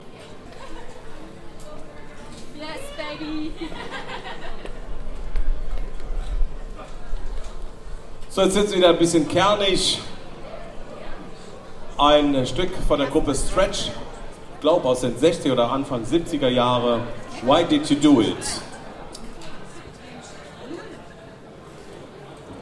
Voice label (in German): Ansage, Konzert; Boemundhof, Saarburg